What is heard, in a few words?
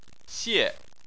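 "xie", Chinese, fourth tone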